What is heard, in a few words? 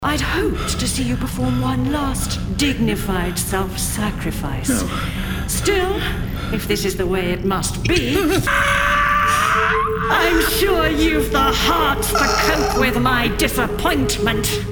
Audiodrama